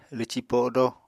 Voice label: "lwci-podo", Apatani